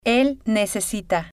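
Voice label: "el necesita", Spanish, female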